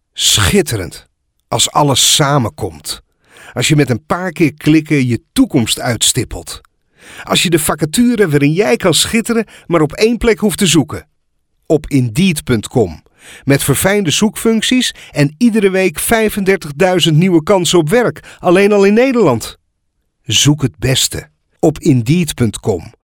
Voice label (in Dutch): positief, emoties